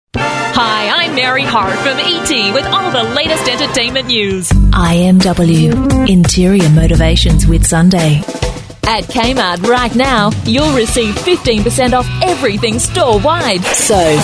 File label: female talent retail